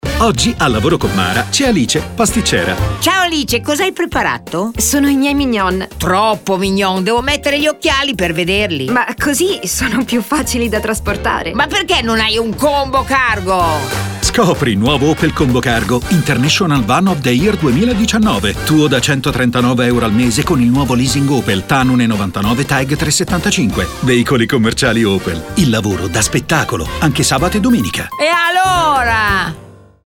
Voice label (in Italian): spot audio; OPEL ComboCargo; Maionchi; Pasticciera; Opel veicoli commerciali 2019